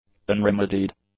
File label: "unremedied", english; adjectives